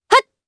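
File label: Vox Attack, Japanese; Chrisha; kingsraid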